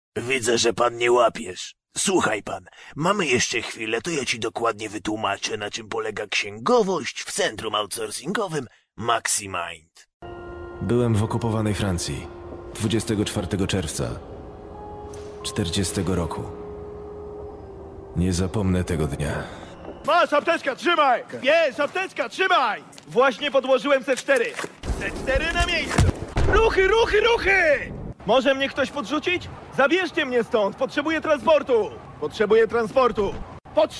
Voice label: polish voiceover Cartoon